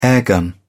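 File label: "airgun", British English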